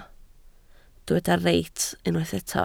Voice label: "reit", Welsh

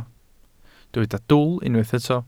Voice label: "dwl", Welsh

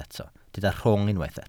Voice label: "rhwng", Welsh

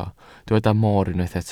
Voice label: "moor", Welsh

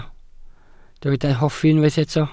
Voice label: "hoffi", Welsh

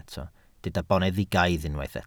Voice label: "boneddigaidd", Welsh

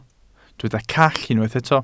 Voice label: "call", Welsh

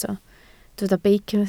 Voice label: "beic", Welsh

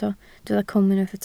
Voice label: "cwm", Welsh

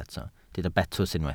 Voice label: "betws", Welsh

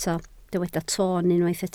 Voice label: "toon" Welsh

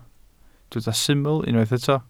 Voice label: "syml" Welsh

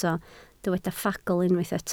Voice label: "ffagl", Welsh

